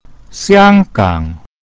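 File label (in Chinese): hongkong, Chinese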